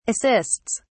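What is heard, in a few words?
"assists", British English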